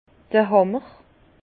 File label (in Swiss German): "hammer", Bas Rhin; vin; marteau